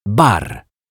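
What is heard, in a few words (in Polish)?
"bar", Polish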